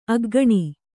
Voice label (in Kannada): "ಅಗ್ಗಿಣಿ", Kannada